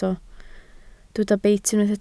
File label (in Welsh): "beit" Welsh